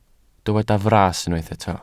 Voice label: "fras", Welsh